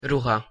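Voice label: "ruha", Hungarian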